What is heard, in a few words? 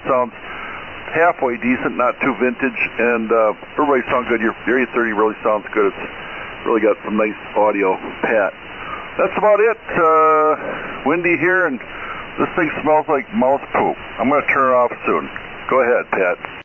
Yaesu FT-401B EV-638 Mic; Colgate, Wi.